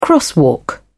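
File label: "crosswalk", British English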